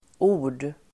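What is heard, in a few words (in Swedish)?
"ord", Swedish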